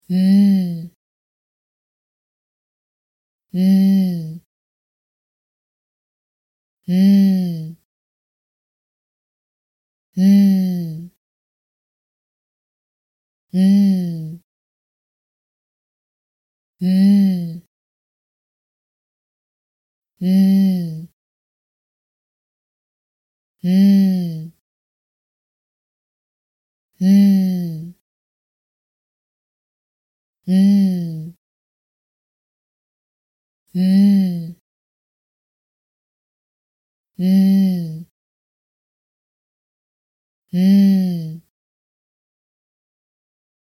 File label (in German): Laut M